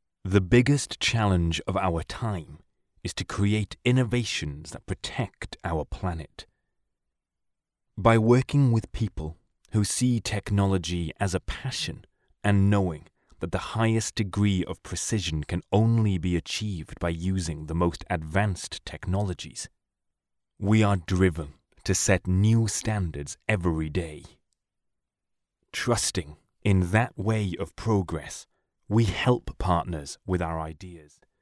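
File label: Welsh English, male, voice over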